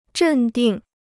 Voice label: "镇定", Chinese

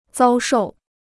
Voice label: "遭受", Chinese

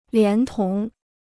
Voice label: "连同", Chinese

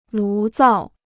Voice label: "炉灶", Chinese

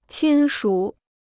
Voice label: "亲属", Chinese

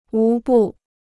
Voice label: "无不", Chinese

